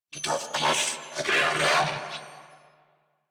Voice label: Attack Grineer Male; New War; Narmer